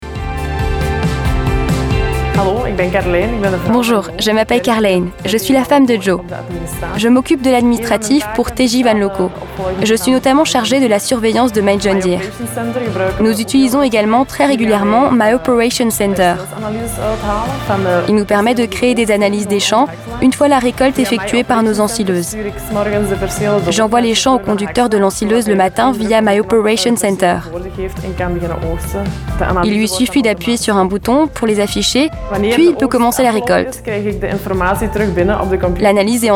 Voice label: voice over; John Deere

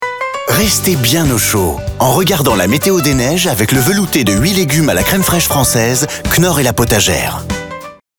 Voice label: Billboard; KNOOR

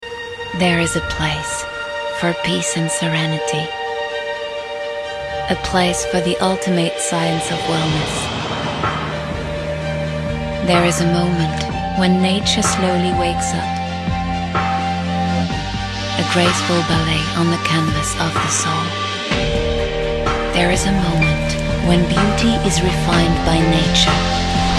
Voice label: PUB ENG; Guerlain spa

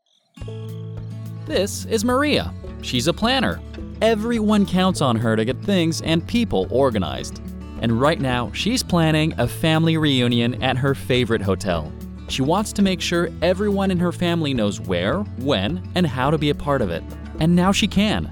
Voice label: Pub US; Hotel Link

